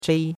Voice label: "zhei1", chinois; Cours-de-Chinois